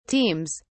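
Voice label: "teams", British English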